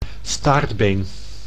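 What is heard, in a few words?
"staartbeen", Dutch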